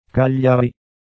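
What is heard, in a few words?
"Cagliari", Italian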